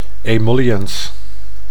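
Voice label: "emolliens", Dutch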